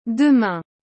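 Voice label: "demain", French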